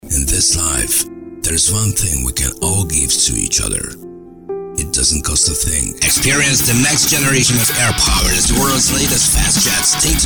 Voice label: english